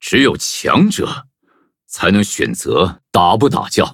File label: upgrade, zh-hant; cookie run